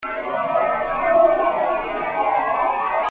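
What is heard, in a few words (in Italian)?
"A noi camerata", Italian; VillaMussolini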